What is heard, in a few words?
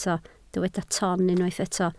"ton", Welsh